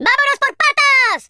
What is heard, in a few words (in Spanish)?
"run away", Spanish; speech